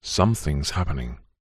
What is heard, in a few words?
human male says somthings happening